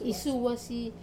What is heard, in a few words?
"suwasi", peel, Wamesa